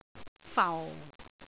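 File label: "fau4", Cantonese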